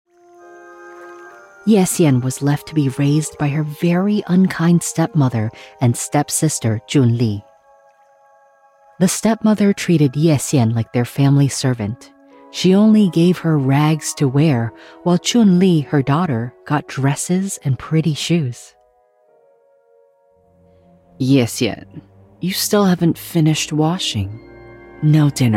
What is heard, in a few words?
english american audiobooks